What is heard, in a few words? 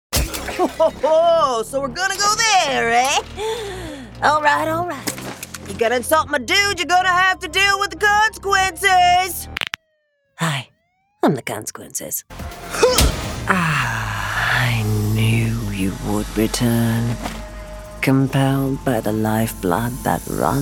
Character